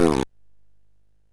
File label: MOS6581R3, Electronic Speech Systems